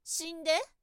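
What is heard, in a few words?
"shinde", woman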